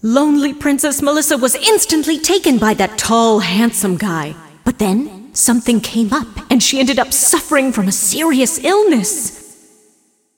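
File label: cinematic voice, Spanish; lineage2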